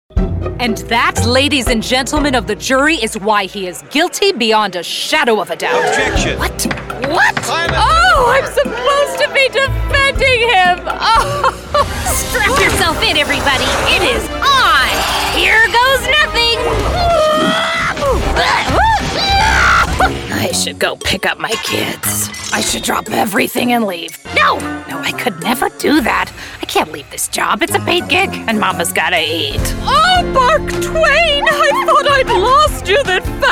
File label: Animation